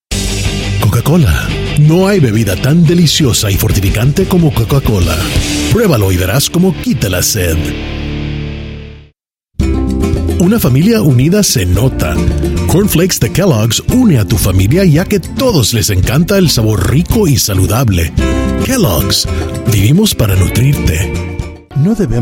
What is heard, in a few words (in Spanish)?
Spanish